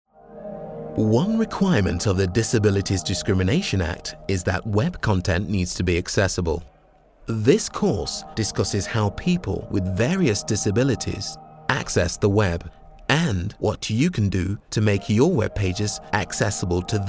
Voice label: british elearning